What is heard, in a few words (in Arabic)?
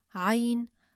"عَيْن", Arabic